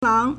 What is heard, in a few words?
"lang2", Chinese